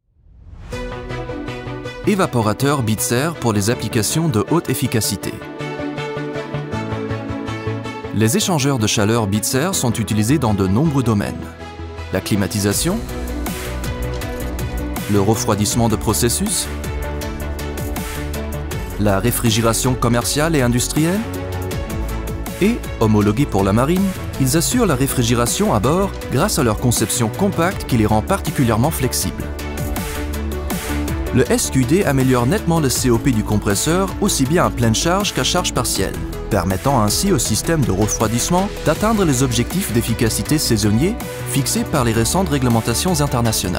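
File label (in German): Französisch Image; Bitzer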